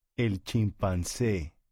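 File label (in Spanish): "el chimpance", Spanish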